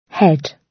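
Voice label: "head", British English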